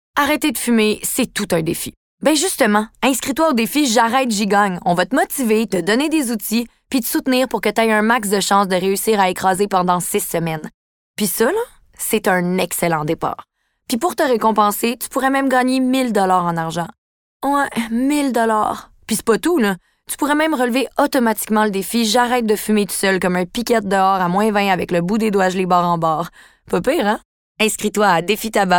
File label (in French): publicite radio jeunes